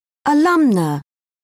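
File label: "alumna", British English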